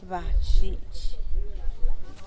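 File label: "badxxhidx", Teotitlán del Valle Zapotec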